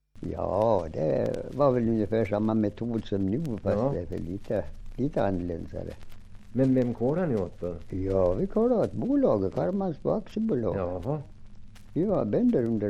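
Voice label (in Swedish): Hed Västmanland, dialekter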